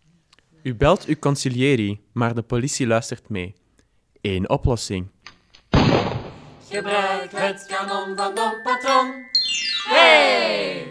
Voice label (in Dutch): Reklamespotje